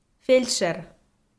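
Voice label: "фельдшер", Komi, female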